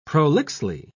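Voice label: "prolixly", British English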